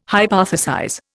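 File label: "hypothesize", Indian English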